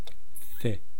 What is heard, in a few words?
"thi", east cree syllabics